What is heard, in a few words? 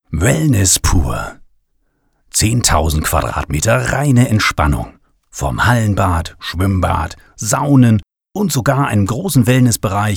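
image German; sprecher; deutsch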